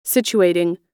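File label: "situating", American English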